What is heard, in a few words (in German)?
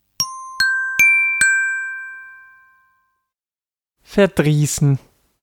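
"verdriessen", German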